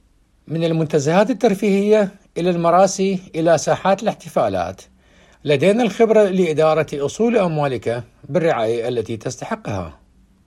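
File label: Arabic General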